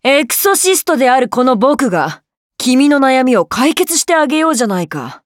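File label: start, Japanese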